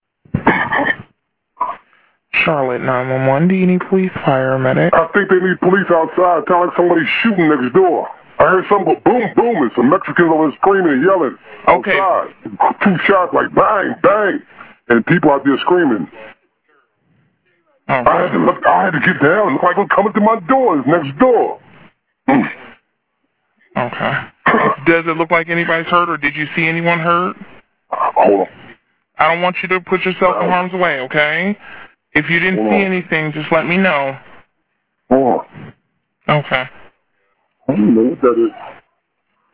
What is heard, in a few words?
911 caller hears gunfire